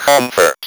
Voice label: "COMFORT", Speak & Spell